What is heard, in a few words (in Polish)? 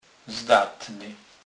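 "zdatny", Kurpie dialect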